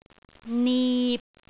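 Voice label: "nip6", Cantonese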